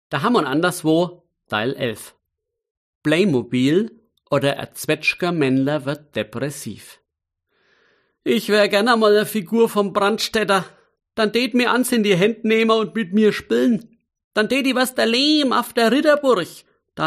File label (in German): Mundart